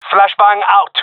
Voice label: throwing flashbang, gendermerie male; vo